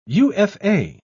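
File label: "ufa", British English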